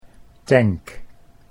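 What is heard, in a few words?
"tank", English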